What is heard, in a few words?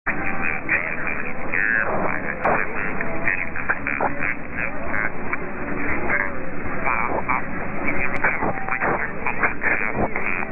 765 Radio Suisse Romande and IRIB 1 Iran